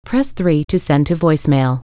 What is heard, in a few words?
voicemail call; PBXNSIP